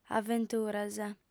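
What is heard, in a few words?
"aventuras", female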